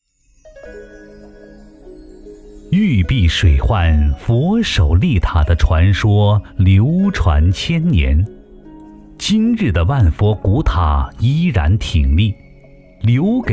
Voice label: 男 佛教; 万佛寺

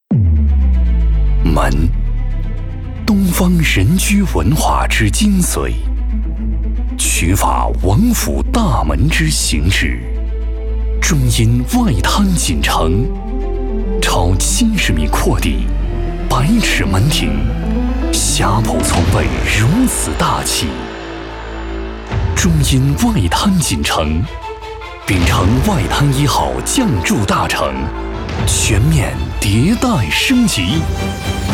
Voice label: 男 大气震撼 地产广告

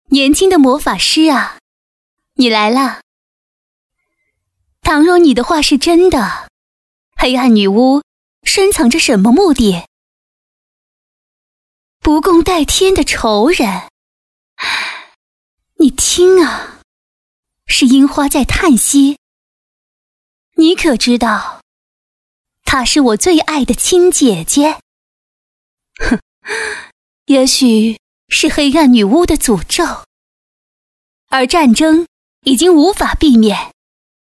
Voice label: 女-角色-白王后-年轻性感

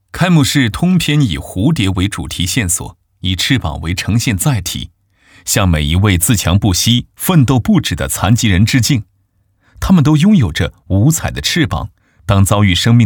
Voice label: 男 宣传片 年轻积极向上

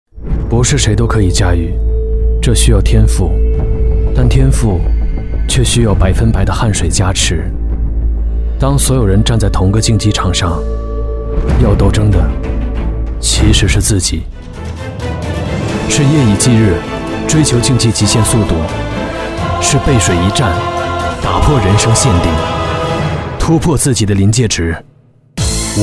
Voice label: 男 广告 自然低沉

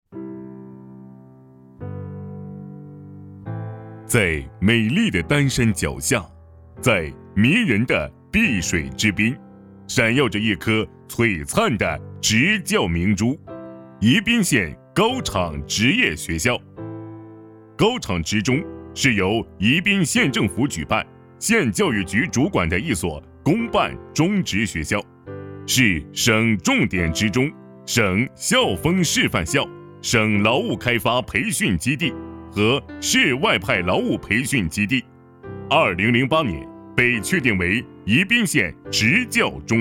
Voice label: 男, 学校宣传片

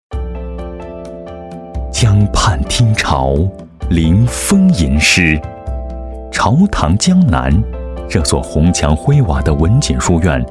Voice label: 男 大气感情; 文锦书院

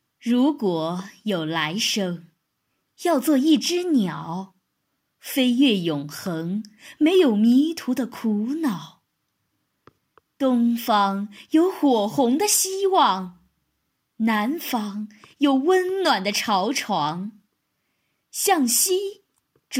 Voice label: 情感类

